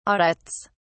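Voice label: "audits", British English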